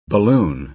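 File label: "balloon", American English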